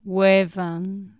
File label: "wefan", Anglo Saxon